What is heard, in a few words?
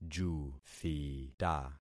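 "juthita", concatenated syllables; Affixing experiment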